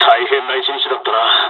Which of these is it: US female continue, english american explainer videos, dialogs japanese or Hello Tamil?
dialogs japanese